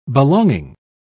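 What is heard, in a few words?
"belonging", British English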